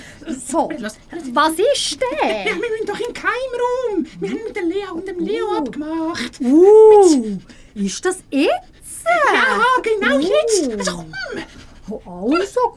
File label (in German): Hoerprobe, Sprecherin